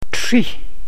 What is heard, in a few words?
"Bear Root", Gwich'in; Trih